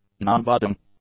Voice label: "nonbudding", english; adjectives

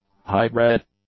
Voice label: "highbred", English; adjectives

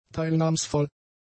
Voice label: "teilnahmsvoll", German; adjectives

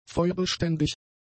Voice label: "feuerbeständig", German; adjectives

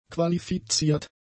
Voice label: "qualifiziert", German; adjectives

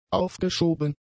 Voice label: "aufgeschoben", German; adjectives

